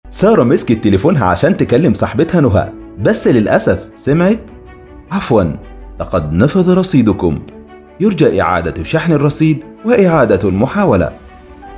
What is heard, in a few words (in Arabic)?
اعلان; اتصالات